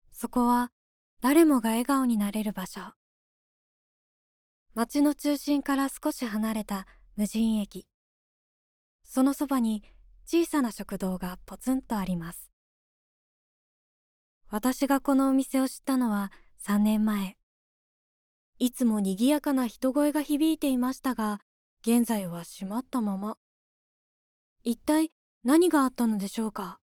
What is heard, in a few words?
female